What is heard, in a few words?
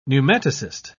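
"pneumatocyst", British English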